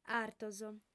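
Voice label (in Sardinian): "artos", female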